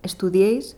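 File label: "estudieis", Spanish; gleitlaute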